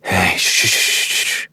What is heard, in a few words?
Horse Calm Adult; Duviri